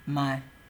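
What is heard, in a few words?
"my", Nigerian Pidgin, male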